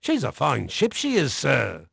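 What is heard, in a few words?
English male; Pirates Of The Caribbean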